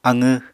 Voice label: "aqw", Apatani